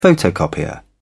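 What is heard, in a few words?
"photocopier", British English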